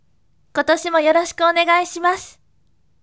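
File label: voice, bright; kotoshimo yorosiku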